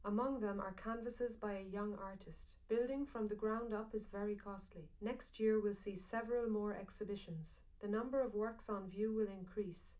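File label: female, live recording, 250 ms, 1 m; SiSEC11; underdetermined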